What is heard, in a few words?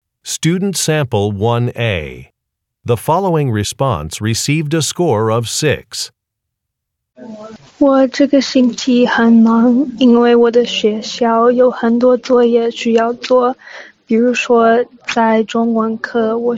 chinese conversation; ap chinese language and culture; Interpersonal Speaking Samples; Conversation 1 Sample A